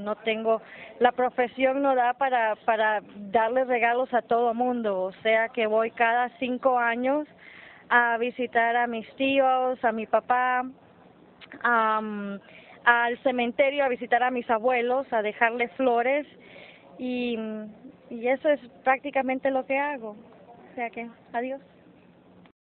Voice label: Honduras Tegucigalpa Fem

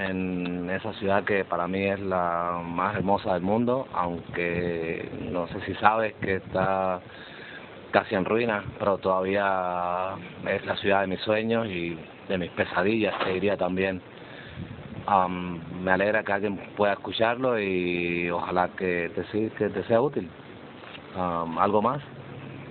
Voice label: Cuba Habana Male

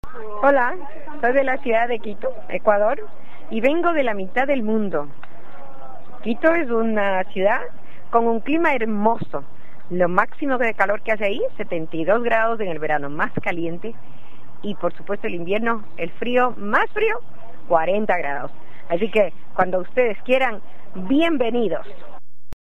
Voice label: Ecuador Quito Fem

